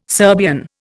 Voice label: "Serbian", Indian